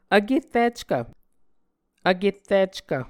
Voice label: "vkerrickv", Muscogee